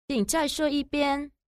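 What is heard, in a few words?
"qing zai shuo yi bian", Chinese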